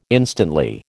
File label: "instantly", American